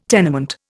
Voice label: "tenement", Indian